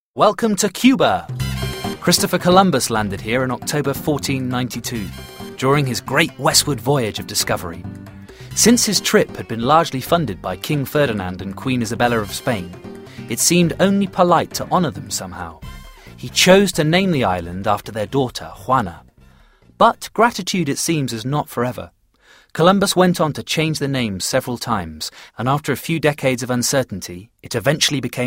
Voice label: Documentary; Cuba; Vocal Point

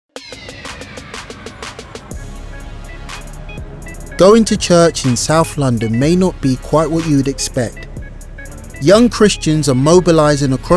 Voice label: Documentary Rapping Vicar

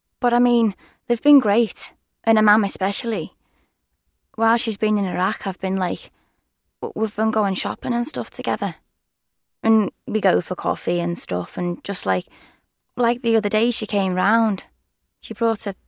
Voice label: Drama

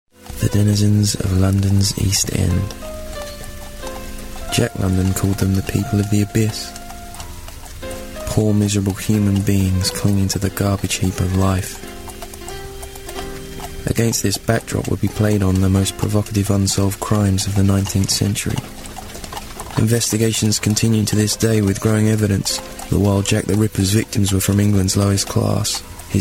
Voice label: Narrations English accent

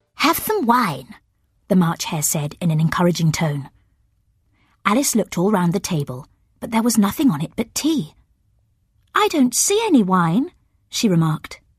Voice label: Narration Story

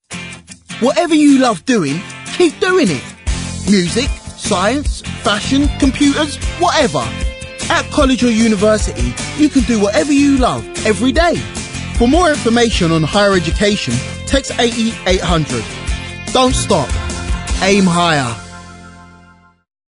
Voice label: Commercial; Vocal Point

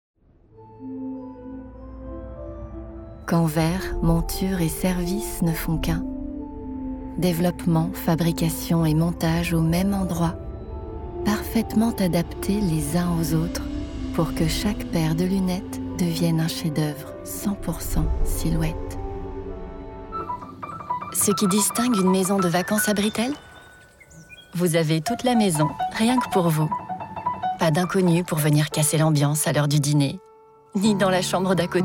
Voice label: French Commercials; Vocal Point

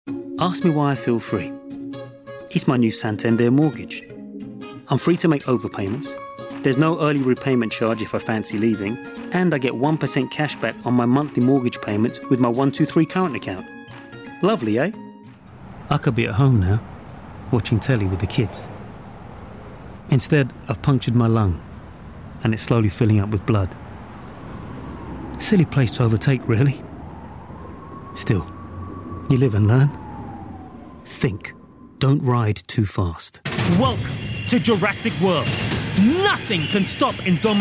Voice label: Commercials

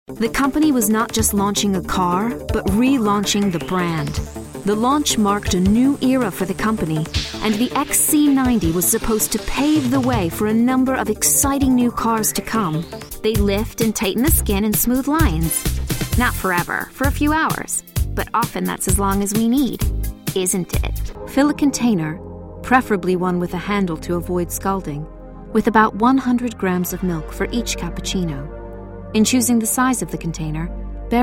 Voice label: Narration; Vocal Point

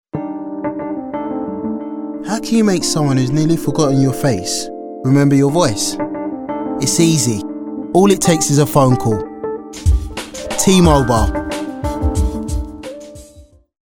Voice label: Commercial; T mobile

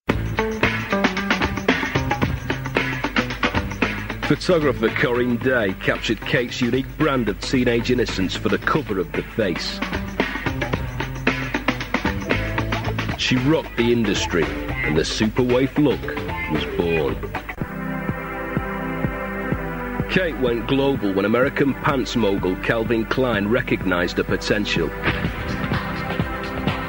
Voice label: Narration; Kate moss

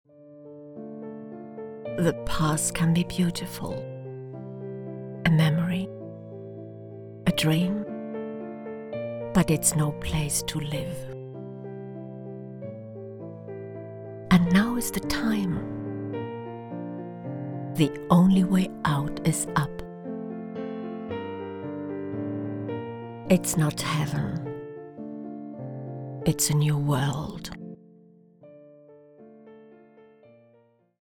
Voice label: English Compilation

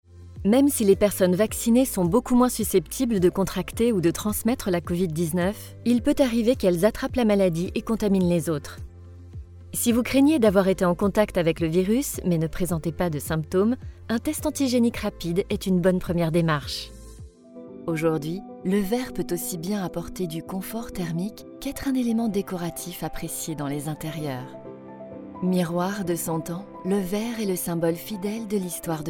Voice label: French Corporate

